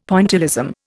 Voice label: "Pointillism", Indian; Dictionary